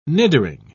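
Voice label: "nidering", British English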